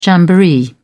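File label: "jamboree", British English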